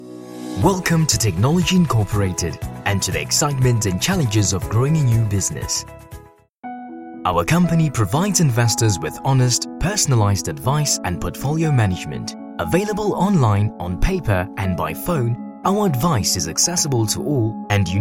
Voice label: english south african commercial; Inglês (sul-africano); África do Sul; ator profissional e dublador masculino